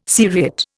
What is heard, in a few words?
"seriate", Indian